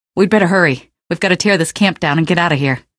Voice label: gunboat hurry, female; Source Engine; video game; canals

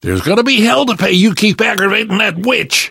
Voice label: witch getting angry, survivor voice; source engine; left 4 dead 2; namvet

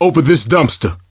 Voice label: misc directional, coach, survivor voice

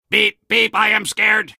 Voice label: soldier robot see ghost, vo

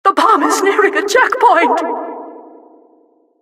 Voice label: announcer cart attacker warning

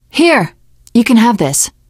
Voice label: alert give item, teen girl, survivor voice

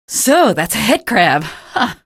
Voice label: alert head, female; video games; npc; source engine; episodic; episode 1

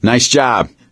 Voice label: nice job, gambler, survivor voice; source engine; left 4 dead 2

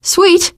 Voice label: nice job, teengirl; survivor voice

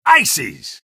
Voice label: sniper taunt rps win; video games; source engine; tf; taunts

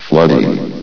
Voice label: "flooding", vox; Source Engine; bshift

